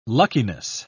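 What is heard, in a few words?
"luckiness", British English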